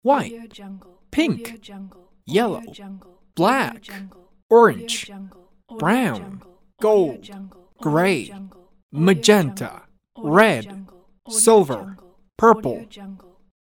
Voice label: male voice colors pack